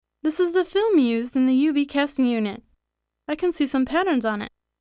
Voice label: eng M, narration